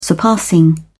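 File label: "surpassing", British English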